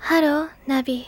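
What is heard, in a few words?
Hello Navi, Japanese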